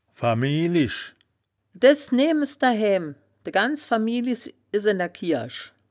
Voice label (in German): "famielisch", woerterbuch, Wittlich